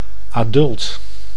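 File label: "adult", Dutch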